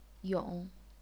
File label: "yong3", chinese pinyin; 踊; 蛹; 咏; 俑; 泳; 涌; 永; 甬; 恿; 勇